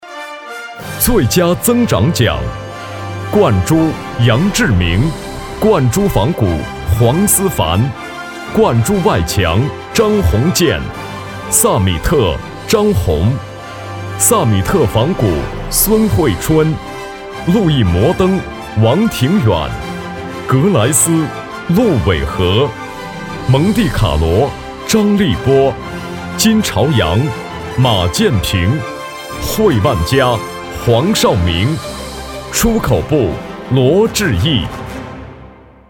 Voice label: 男, 旁白, 颁奖; 新明珠总结会颁奖

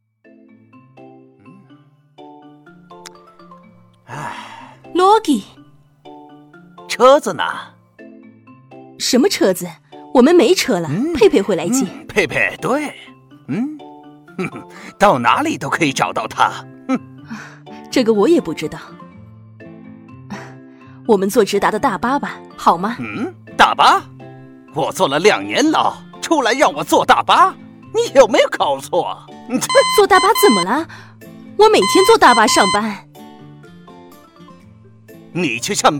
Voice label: 男 释意片

